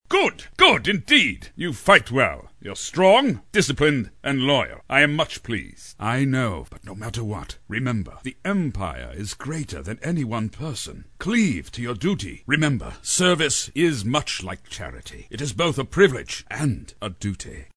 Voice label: King Noble & Strong; animation